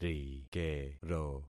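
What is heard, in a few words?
"rikero", concatenated syllables; Affixing experiment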